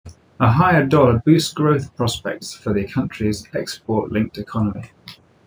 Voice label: reverb far 8ch